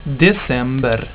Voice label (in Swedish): "december", swedish; tijden